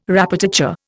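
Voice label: "répétiteur", Indian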